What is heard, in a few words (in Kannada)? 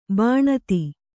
"ಬಿಣ್ಣಿತು", Kannada